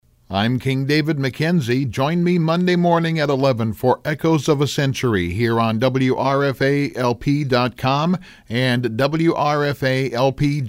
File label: promo; wrfa-lp